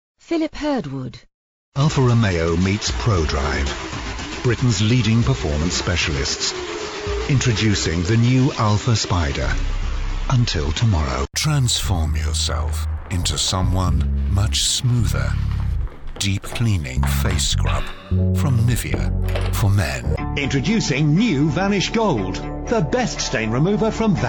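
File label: commercial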